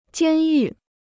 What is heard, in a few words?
"监狱", Chinese